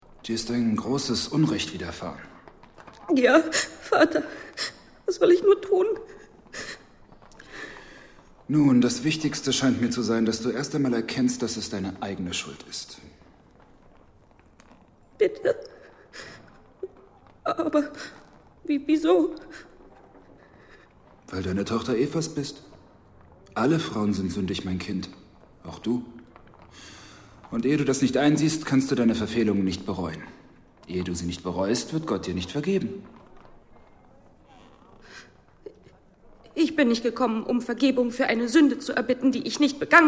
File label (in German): hoerspiel; jonah